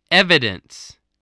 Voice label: "evidence", voice, english